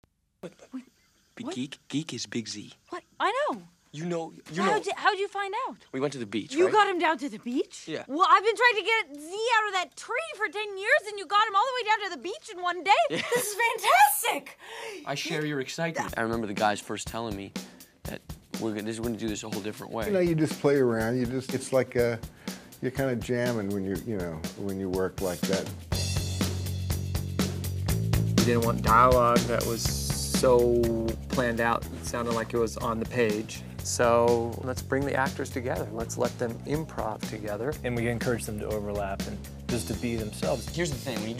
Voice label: voiceovers; Surfs Up